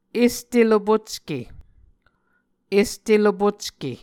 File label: "este lopocke", Muscogee